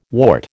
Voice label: "wart", American